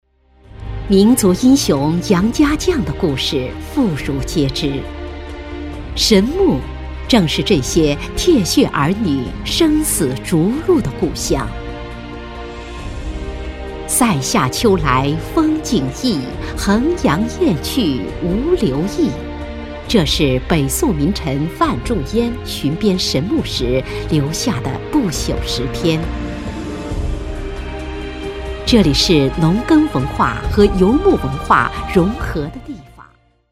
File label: female chinese voice over narrative, mandarin